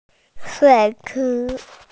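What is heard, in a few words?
"pool", Mandarin Chinese, female; shui3chi2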